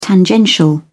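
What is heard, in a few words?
"tangential", British English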